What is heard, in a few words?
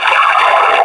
throw grenade, combine soldier; hl2b